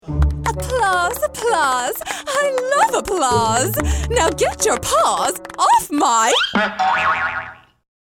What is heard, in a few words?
Character; Voiceover Demos